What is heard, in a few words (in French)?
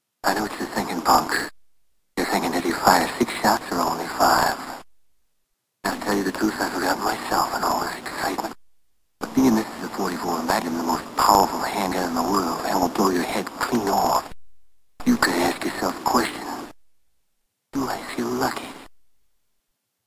Dirty Harry NES voix fin